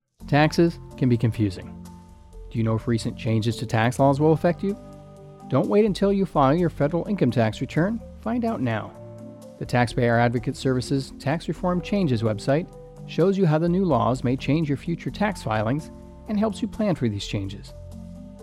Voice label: PSA EN; 44secs; Tax Changes